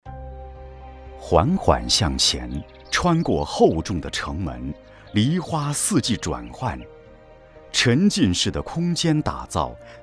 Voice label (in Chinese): 男 解说 中年男多媒体展示中心大气叙述